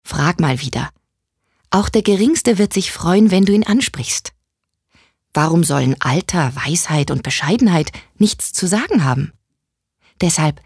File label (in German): Werbung Niedlich